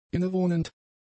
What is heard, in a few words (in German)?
"innewohnend", German; adjectives